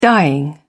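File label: "dying", British English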